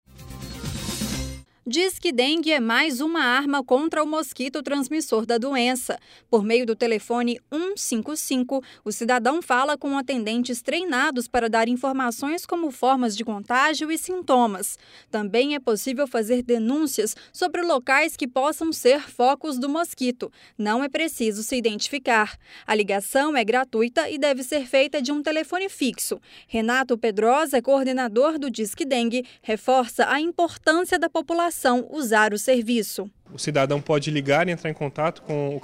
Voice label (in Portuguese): boletim; disque dengue acordo de cooperacao com qatar inscricoes para 15 mil vagas na policia militar